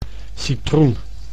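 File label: "citroen", Dutch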